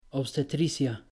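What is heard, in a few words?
"obstetricia", Spanish; pronouncing c g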